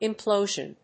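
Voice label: "implosion", English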